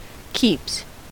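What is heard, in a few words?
"keeps", American English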